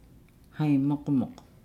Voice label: "muscular", Nukuoro